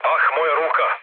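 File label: Serbian damaged pilot mood low, speech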